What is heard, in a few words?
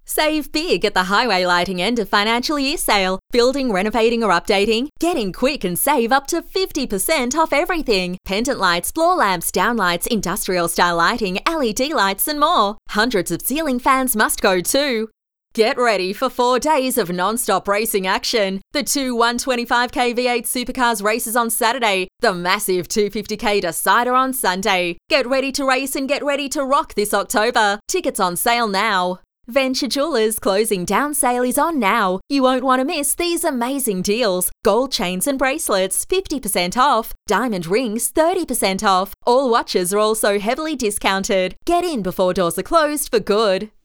Hard Sell